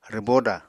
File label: "rwbóda", Apatani